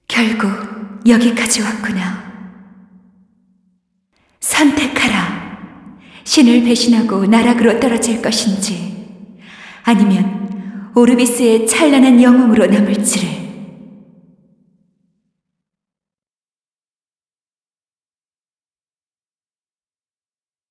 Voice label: cinematic voice; lineage2